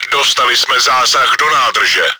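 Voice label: fuel tank damaged, чешской озвучки; Czech; Нам попали в бак!; Повреждение баков танка игрока; World of Tanks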